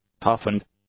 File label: "toughened", English; adjectives